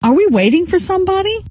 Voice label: are we waiting, scientist female

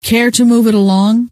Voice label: care to move it, scientist female

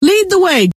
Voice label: "lead the way", scientist female

